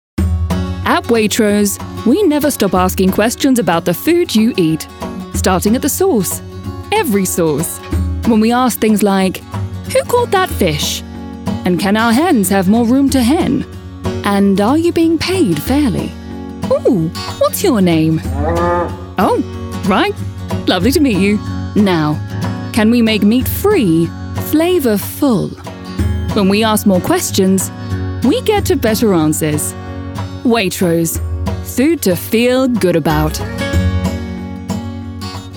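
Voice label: Ad, female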